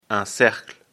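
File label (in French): "cercle", French; afrikalan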